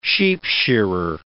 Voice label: "sheepshearer", words